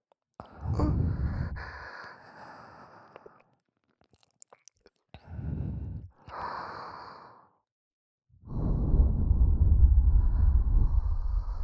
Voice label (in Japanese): 両耳すきすき囁き